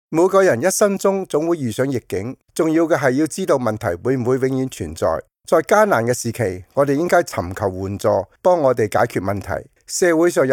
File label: cantonese